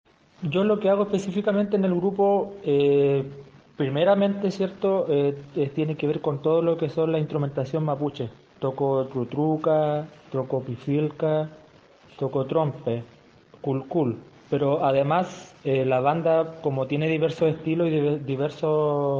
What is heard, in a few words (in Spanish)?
Cuña